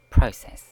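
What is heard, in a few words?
"process", British English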